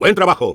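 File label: good job, Spanish; Engineer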